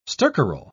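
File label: "stercoral", British English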